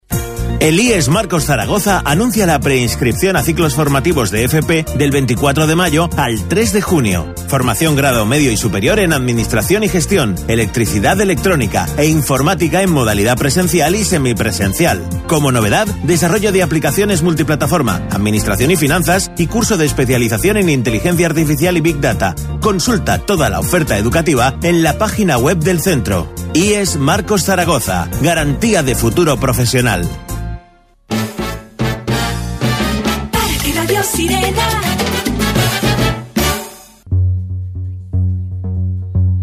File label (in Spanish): entrevista profesores radio; ies marcos zaragoza; Radio Sirena COPE